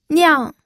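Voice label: "niang", Mandarin Chinese, fourth tone; pinyin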